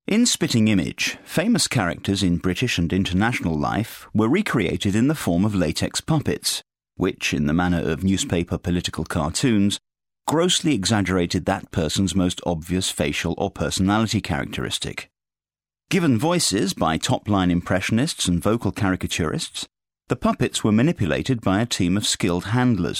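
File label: narration documentary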